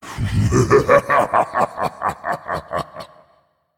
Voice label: Male Demon Emote Response; Mannoroth; Thrall